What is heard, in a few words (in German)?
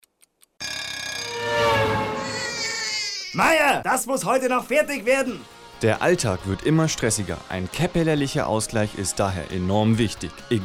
Werbung